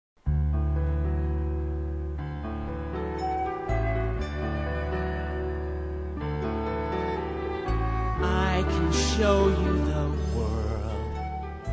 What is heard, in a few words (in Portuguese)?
EDUCATIVO INFANTIL, Telemensagens; masc; ALADIM; Telemensagens 2017